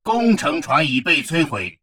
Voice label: advisor notification construction ship destroyed, chinese; Stellaris